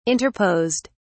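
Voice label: "interposed", British English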